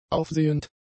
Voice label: "aufsehend", German; adjectives